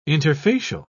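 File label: "interfacial", English, British English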